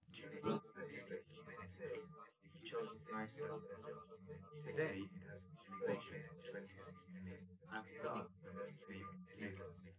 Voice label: male, live rec

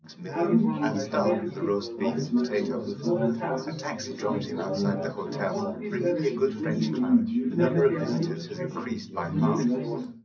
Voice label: male4; SiSEC10; underdetermined

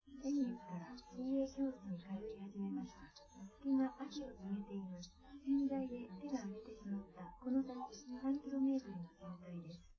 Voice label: female live rec; SiSEC10; underdetermined

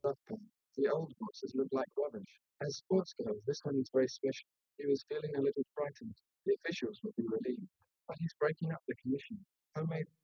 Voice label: male; SiSEC10; underdetermined

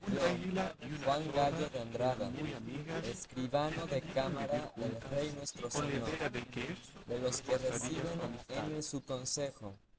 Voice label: male inst src; SiSEC08; SiSEC underdetermined

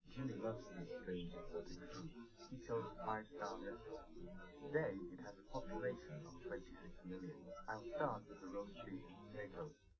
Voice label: male live rec; SiSEC10; underdetermined

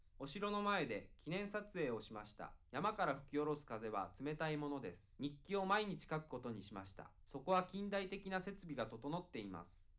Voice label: male live rec 5cm; SASSEC07

